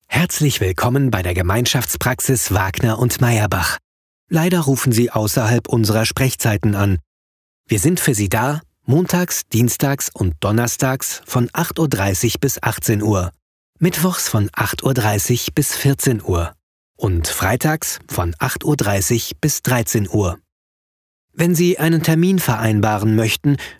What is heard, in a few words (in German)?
abwesenheitsansage, Sprecher